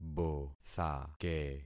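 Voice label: "bothake", concatenated syllables; Affixing experiment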